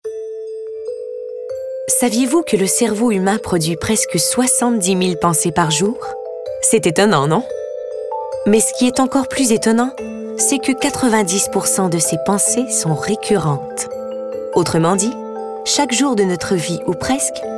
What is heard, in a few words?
narration